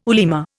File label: "ulema", Indian